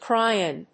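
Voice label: "Cryin", English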